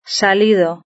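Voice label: "salido", Spanish; verb-conjugator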